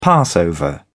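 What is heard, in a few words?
"passover", British English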